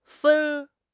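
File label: "fen1", Chinese; HSK1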